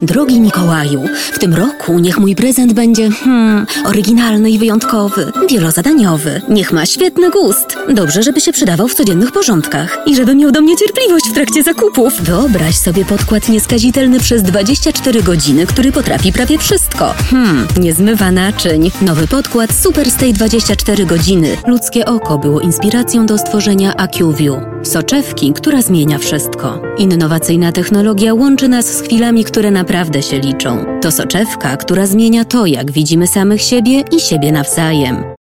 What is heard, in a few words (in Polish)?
Lektorka; Studio Nonagram